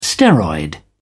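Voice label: "steroid", British English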